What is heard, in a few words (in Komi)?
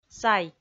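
"сай", Komi, female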